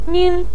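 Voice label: "ming", Mandarin Chinese neutral tone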